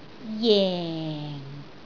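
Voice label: "jeng4", Cantonese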